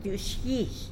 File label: "btyuix guizh" tomatillo, Tlacolula